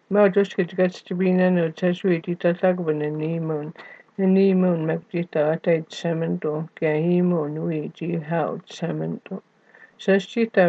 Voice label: cree eastern; gospel of john and philippians; crj cree southern east audio bible